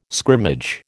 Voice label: "scrimmage", American